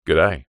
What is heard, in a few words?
human male australian middle aged says "gday"